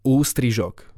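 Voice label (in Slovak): "ustrizok", Slovak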